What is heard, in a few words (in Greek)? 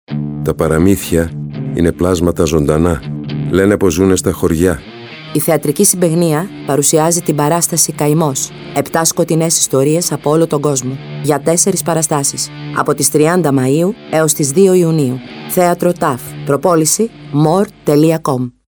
Καημός Radio Spot